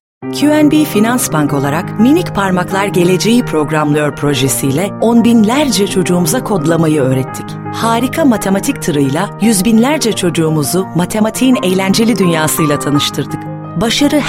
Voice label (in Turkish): reklam